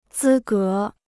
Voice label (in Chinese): "资格", Chinese